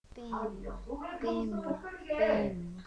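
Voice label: "beam", spoken word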